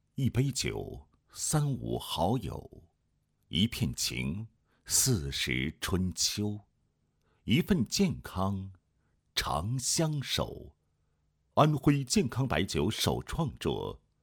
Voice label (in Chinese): 男 酒类品牌宣传 苦荞酒宣传配音; 和泰